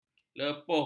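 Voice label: "lepuh", Malay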